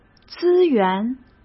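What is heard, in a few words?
"资源", Mandarin Chinese; hsk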